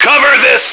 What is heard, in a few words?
cover this, radio, male